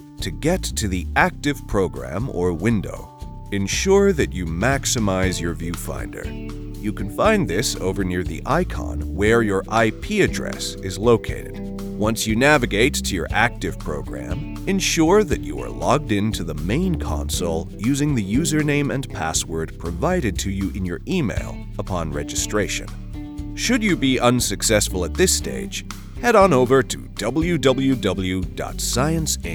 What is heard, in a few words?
E-Learning US